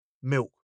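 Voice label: "milk", British English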